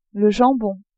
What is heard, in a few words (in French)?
"jambon", french